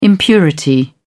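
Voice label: "impurity", British English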